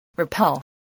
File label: "repel", English